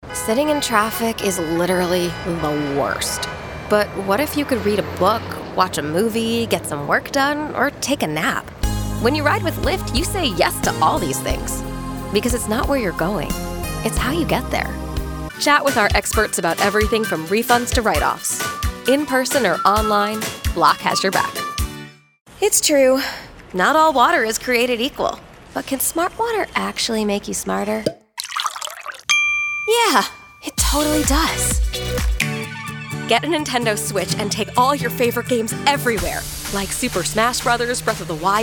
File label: Commercial Reel